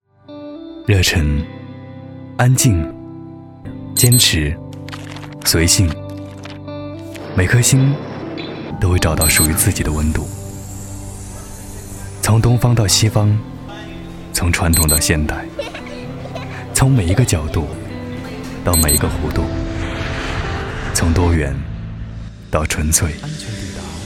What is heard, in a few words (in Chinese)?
男 专题; 自然